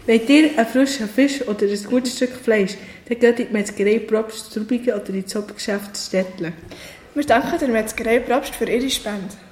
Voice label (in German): Werbespot Metzgerei; Metzgerei Probst Rubigen; Muensingen